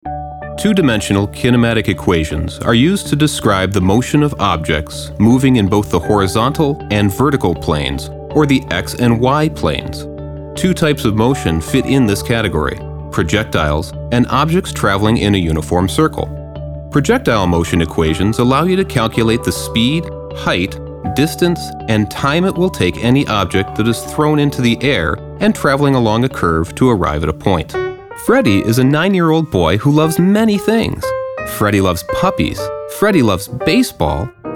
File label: Narrative